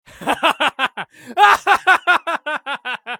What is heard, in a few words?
battle cry; eft; usec